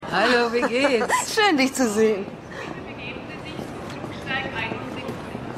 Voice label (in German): Frau Durchsage